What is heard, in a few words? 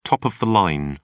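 "top of the line", British English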